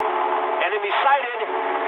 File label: eng enemy detected mood med, speech eng